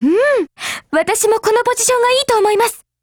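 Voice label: formation, Japanese; Carcano1891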